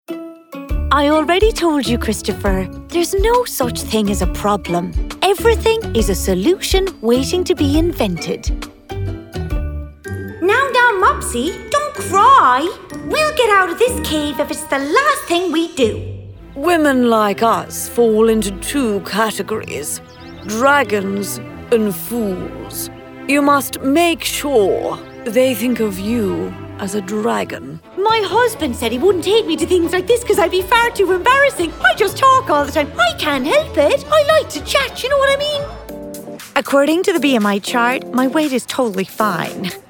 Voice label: animation reel